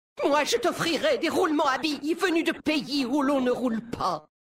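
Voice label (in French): French Homme Flirt; Mécagnome; Roulement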